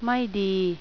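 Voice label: "no good", male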